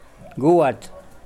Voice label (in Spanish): Nawat palabras serpiente; pipil